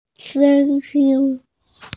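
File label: "sound", Mandarin, hearing-impaired; sheng1yin1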